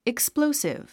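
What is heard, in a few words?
"explosive", English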